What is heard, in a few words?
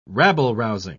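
"rabble-rousing", English, uk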